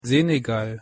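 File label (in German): "senegal", German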